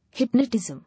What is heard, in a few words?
"Hypnotism", English